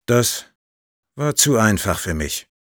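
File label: Sniper auto capped intelligence, German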